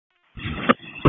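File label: FIRE Operations, p25; FIRE OPS 1; wake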